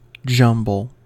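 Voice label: "jumble", American English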